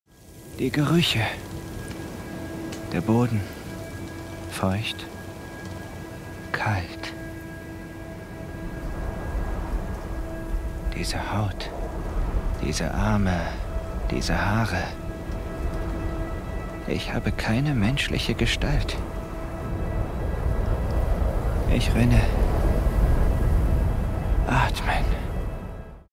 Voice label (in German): instinktiv animalisch; Wolf; Jacob